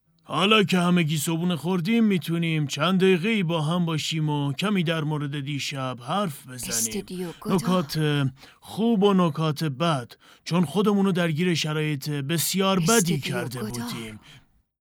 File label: dub